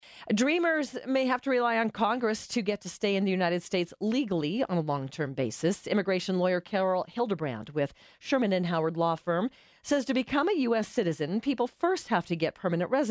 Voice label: NewsRadio; KOA